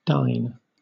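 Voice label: "dine", British English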